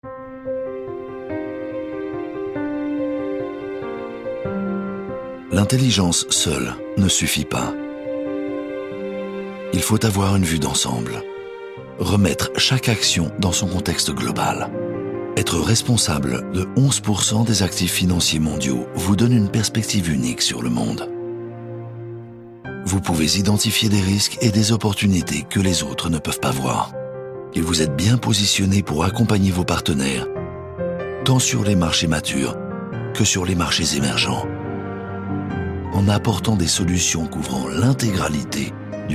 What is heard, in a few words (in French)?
film institutionnel; State Street